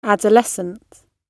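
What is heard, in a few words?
"adolescent", British English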